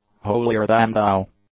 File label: "holier-than-thou", English; adjectives